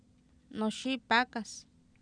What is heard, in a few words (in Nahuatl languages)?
"noxIipAakas", Oapan, female; Oapan minimal pairs 1998